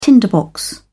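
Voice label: "tinderbox", British English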